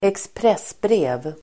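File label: "expressbrev", Swedish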